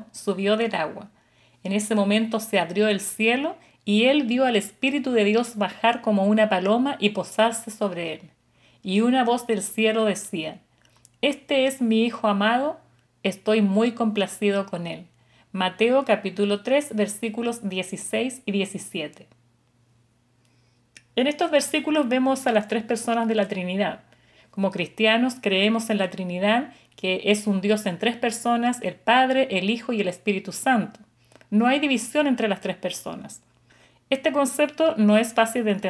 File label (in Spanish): devocional